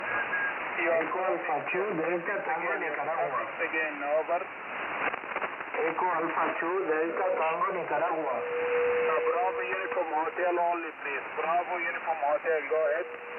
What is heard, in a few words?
40m ssb